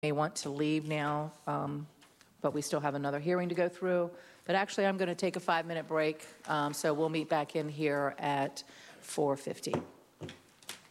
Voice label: Announcement of Recess, planning zoning meeting